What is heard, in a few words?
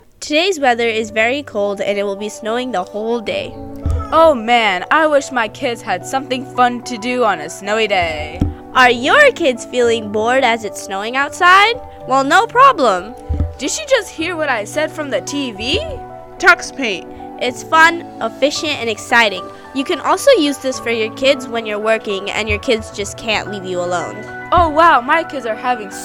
Radio Ad; Tux Paint; 7thgrade radio ads